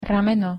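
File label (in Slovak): "rameno", Slovak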